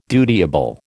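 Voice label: "dutiable", American English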